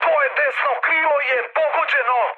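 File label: Serbian right wing mood high; speech; War Thunder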